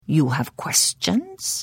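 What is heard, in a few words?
Hello, Khajiit female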